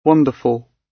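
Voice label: "wonderful", British English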